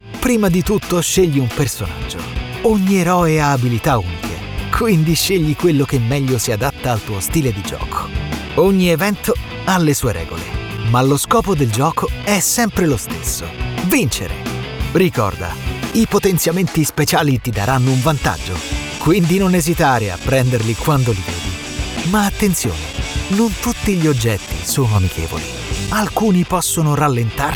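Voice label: Italian Male Videogame Dubbing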